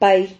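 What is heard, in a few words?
"pay", Spanish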